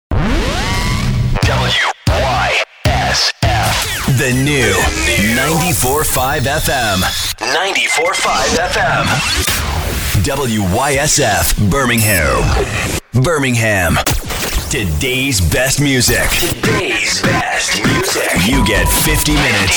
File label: Radio Imaging